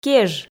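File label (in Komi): "кеж", Komi, female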